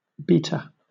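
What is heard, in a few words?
"beater", British English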